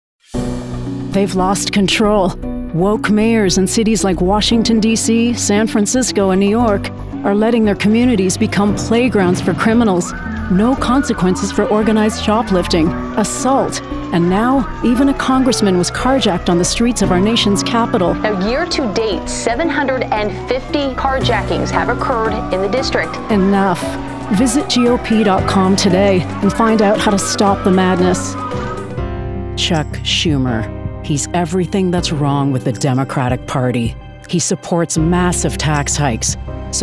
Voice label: Political English